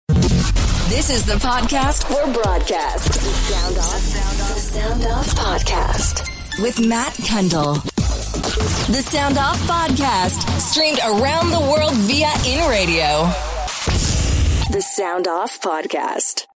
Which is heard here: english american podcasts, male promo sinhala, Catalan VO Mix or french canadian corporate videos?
english american podcasts